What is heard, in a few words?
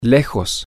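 far From, spanish, male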